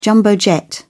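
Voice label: "jumbo jet", British English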